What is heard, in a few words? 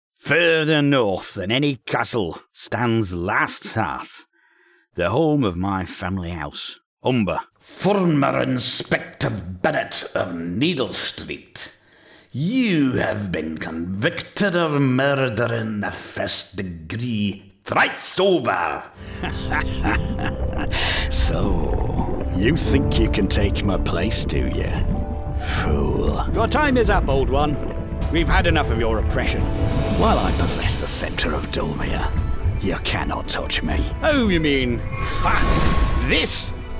deep male mix